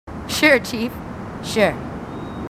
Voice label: marines female, marine tough

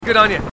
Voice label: marines, aussie; h2dialogue

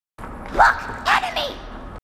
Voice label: grunt; h2dialogue; grunt crazy

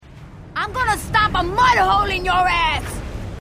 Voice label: female marine

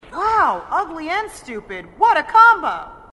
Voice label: female marine

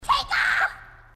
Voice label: grunt; h2dialogue; grunt crazy

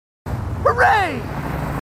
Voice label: marines, marine timid; h2dialogue

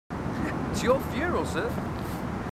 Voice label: marines, aussie; h2dialogue

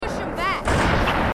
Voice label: marines female

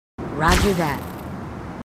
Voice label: marines female, marine tough

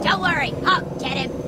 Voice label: grunt; h3dialogue; staten

